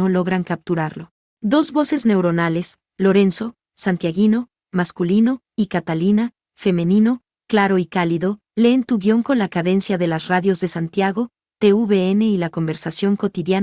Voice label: chile female; vocero s2s